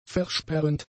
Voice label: "versperrend", German; adjectives